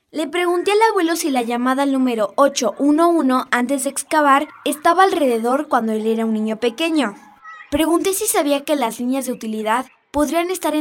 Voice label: Radio Spanish; I Asked Grandpa 811